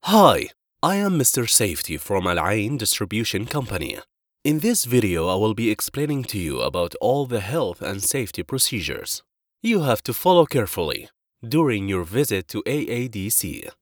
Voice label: english corporate videos